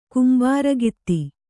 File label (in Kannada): "ಕಂಬಾರಗಿತ್ತಿ", Kannada